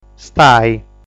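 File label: "Stai", Sardinian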